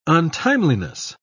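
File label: "untimeliness", British English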